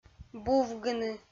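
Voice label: "бувгыны", Komi-Zyrian, female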